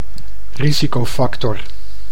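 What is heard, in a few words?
"risicofactor", Dutch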